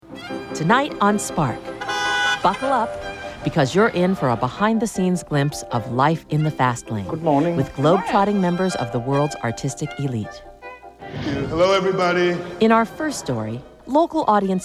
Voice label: Narration